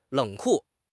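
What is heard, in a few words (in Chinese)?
"冷酷", Chinese; HSK6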